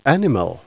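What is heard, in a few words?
"animal", English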